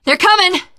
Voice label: incoming, teengirl; Left 4 Dead 2